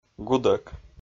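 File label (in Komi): "гудӧк", male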